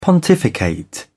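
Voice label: "pontificate", British English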